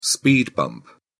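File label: "speed bump", English, British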